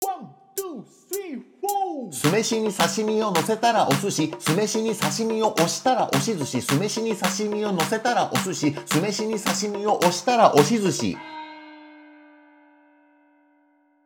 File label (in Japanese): 早口言葉リズム（中級編）